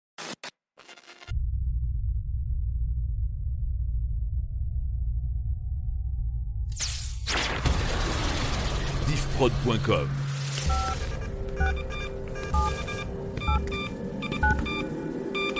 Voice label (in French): Intro Prend le controle